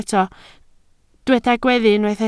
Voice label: "gweddu", Welsh

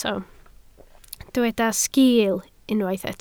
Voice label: "sgiil", Welsh

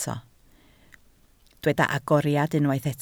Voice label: "agoriad", Welsh

